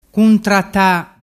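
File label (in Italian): "cuntratta", Vernazzese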